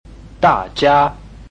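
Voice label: "da jia", Chinese, tones 4 and 1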